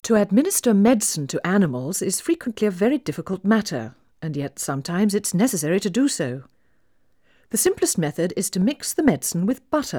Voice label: Female speech English Mono output noised; 4bit